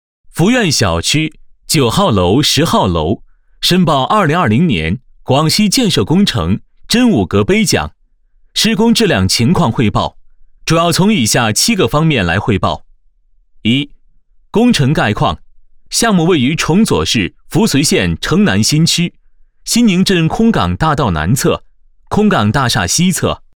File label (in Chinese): 男 专题片 小区; 福苑小区